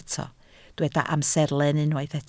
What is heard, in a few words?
"amserlen", Welsh